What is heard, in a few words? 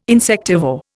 "insectivore", Indian